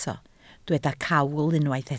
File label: "cawl", Welsh